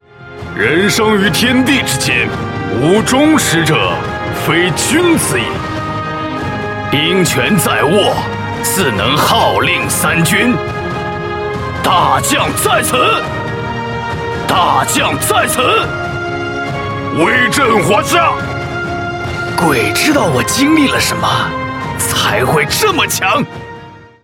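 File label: male sturdy uncle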